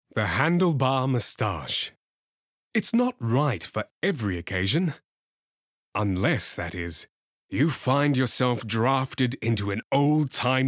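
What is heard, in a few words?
VO, Australian English; Heineken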